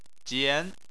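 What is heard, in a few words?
"jian", Chinese, second tone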